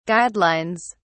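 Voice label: "guidelines", British English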